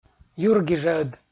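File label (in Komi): "юргижӧд" Komi, male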